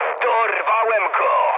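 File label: player killed enemy mood high, speech Polish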